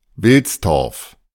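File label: German "Wilstorf"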